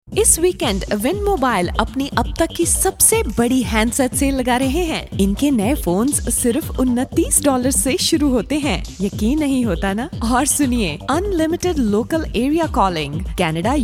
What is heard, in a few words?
spring event weekend sale hindi; Wind Mobile